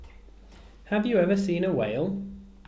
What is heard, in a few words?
"whale", English